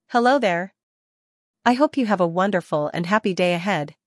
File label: en-US; Text to Speech; JennyNeural